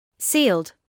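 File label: "sealed", British English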